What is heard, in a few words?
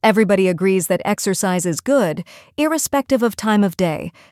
us female